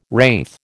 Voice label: "wraith", American